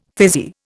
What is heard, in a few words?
"fizzy", Indian